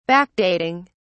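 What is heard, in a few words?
"backdating", British English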